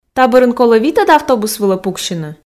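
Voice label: "та бӧрын колӧ витӧд автобус вылӧ пуксьыны", Komi, female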